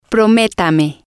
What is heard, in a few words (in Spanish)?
prométame, Spanish